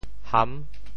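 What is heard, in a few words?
"ham6", Teochew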